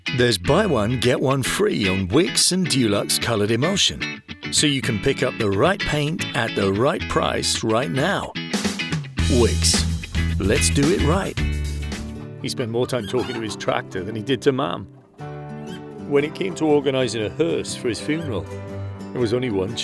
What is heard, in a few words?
Commercial, voicereels